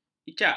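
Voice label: "icak", Malay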